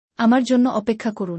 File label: Wait for me. Bangla; Time and Routine